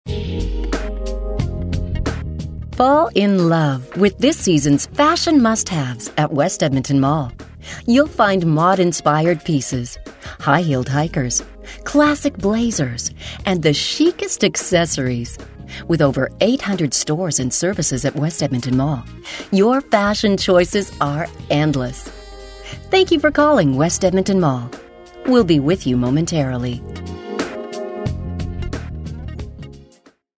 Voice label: On Hold